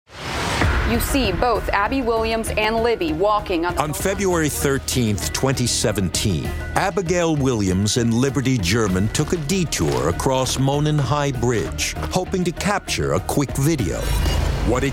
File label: narration